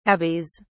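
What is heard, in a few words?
"abies", British English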